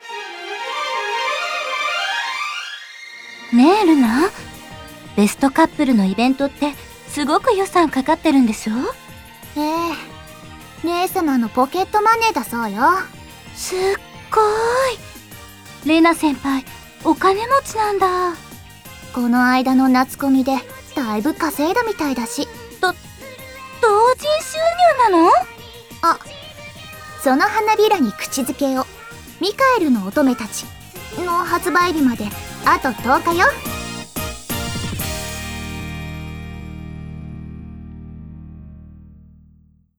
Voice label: Voice Drama